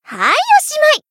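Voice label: finish, Japanese; ilulu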